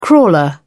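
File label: "crawler", English, British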